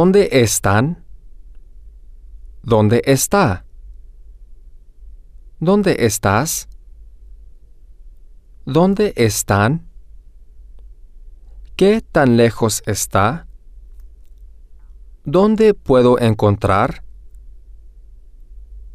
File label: Locations Questions, spanish